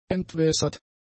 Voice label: "entwässert", German; adjectives